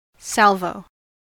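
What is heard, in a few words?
"salvo", American English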